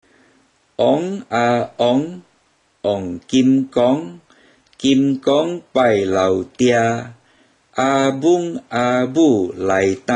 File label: Rock Rock Baby Read, Teochew Nursery Rhymes